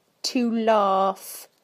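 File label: "to laugh", British English; PictureDictionary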